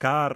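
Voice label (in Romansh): "carr" Romansh, Sursilvan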